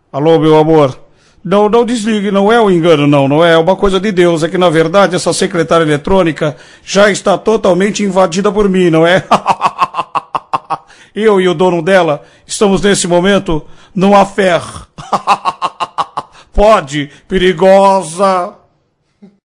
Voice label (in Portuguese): Secretaria Eletronica; Vinhetas; Clodovil